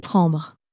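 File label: "prendre", French, female; flashcards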